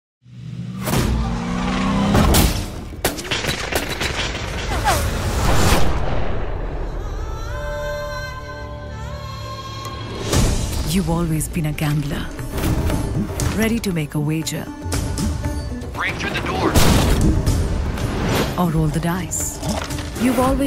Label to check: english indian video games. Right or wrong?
Right